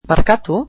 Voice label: Waiter, basque